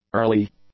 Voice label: "early", English; adjectives

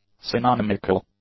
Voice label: "synonymical", English; adjectives

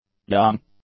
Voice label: "young", english; adjectives

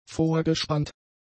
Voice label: "vorgespannt", German; adjectives

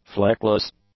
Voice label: "fleckless", english; adjectives

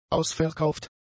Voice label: "ausverkauft", German; adjectives

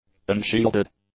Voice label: "unshielded", english; adjectives

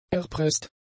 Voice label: "erpresst", German; adjectives

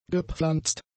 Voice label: "gepflanzt", German; adjectives

